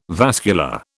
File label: "vascular", British